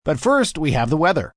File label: First Weather M, Broadcast, announcer, breakaway, male; VoiceBox